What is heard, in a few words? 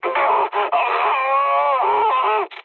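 damaged pilot, mood high, French; War Thunder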